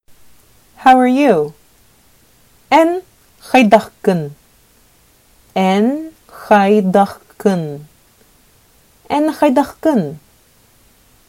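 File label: Sakha How are you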